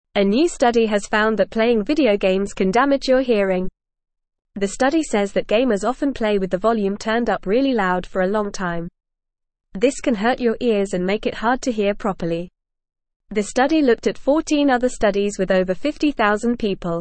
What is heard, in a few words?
English Upper Intermediate FAST Reading; English Newsroom; Gamers at Risk of Hearing Loss and Tinnitus